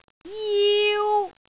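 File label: "jiu1", Cantonese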